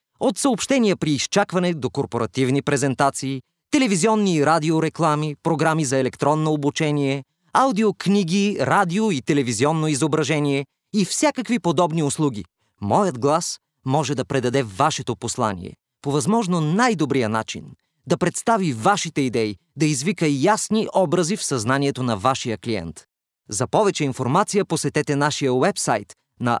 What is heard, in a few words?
male bulgarian voice over narrative